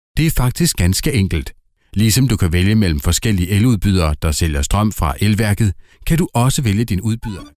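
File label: Danish, male, narration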